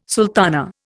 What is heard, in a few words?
"sultana", Indian